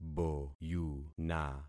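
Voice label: "boyuna", concatenated syllables; Affixing experiment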